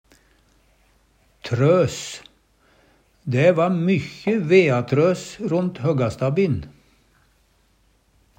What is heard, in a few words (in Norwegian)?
"veatros", Numedalsmål; Substantiv inkjekjønn; Dæ va mykkje veatrøs ront høggastabbin.